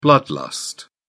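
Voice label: "blood lust", British English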